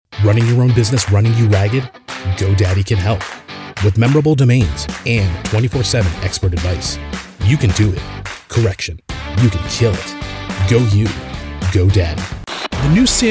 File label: USA